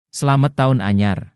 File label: new year javanese; Slamet Taun Anyar